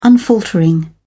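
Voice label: "unfaltering", English, uk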